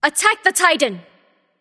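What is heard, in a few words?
vox attack male; smite; artio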